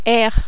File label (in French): "r", French